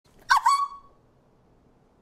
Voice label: female twitch streamer reacts to bunny death